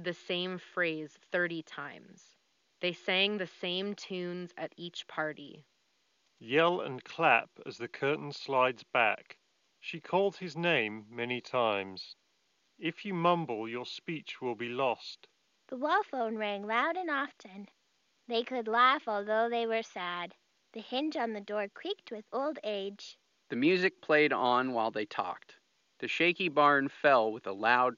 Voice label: Ideal microphone sample; HyperX Cloudbuds